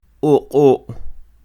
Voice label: Oq'o', Quiché; dos mil; two thousand

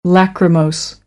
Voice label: "lachrymose", American; AmericanBritish

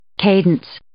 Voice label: "cadence", English

